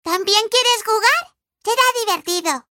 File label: spanish lol ringtone